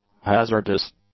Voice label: "hazardous", English; adjectives